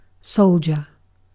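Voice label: "soldier", British English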